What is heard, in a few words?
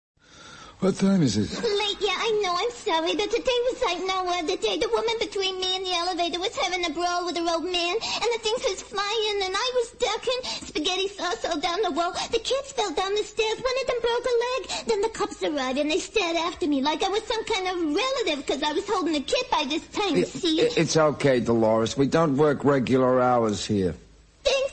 Voice Demo